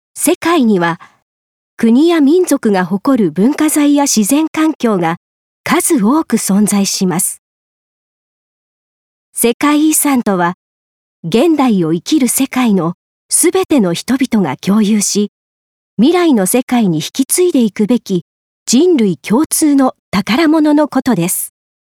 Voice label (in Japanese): 教養番組ナレ